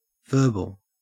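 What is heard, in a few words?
"verbal", Australian English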